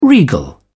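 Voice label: "regal", British English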